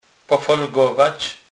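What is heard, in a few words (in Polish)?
"pofolgowac", Kurpie dialect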